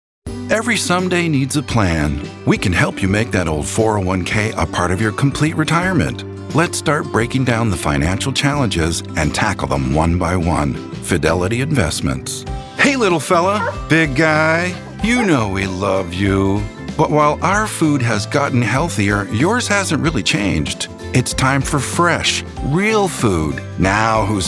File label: us male; lektoring